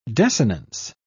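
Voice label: "desinence", British English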